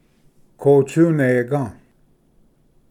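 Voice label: "kotsunega", Cherokee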